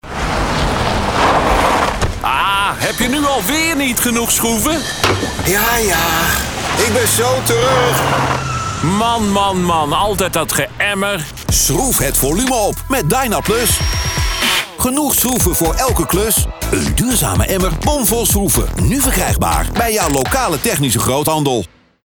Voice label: radio commercial; Dynaplus